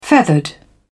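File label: "feathered", British English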